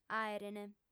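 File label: "àerent", Sardinian; fem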